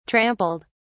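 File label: "trampled", British English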